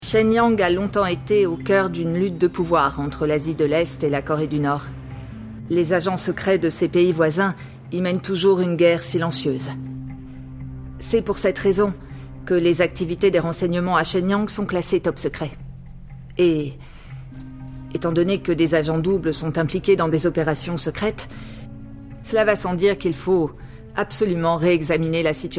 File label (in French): Doublage; voix-off; Yaksha; Jin Kyung